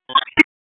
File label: Police Southeast District Tac; Raleigh; wake